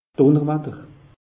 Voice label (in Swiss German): "dunnerwatter", alsace; meteo